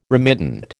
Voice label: "remittent", American